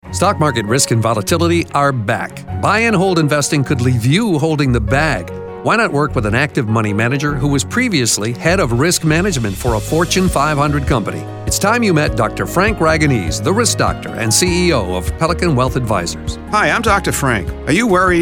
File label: Radio Ad